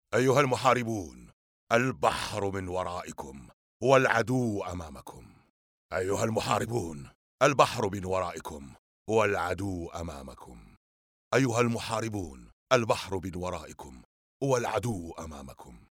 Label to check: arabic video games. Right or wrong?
Right